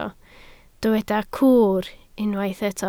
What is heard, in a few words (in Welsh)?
"cwr" Welsh